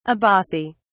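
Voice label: "abaci", British English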